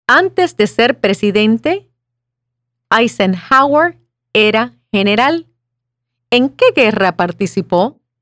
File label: Spanish